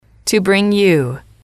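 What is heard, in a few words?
to bring you, female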